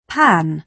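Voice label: "pan", British English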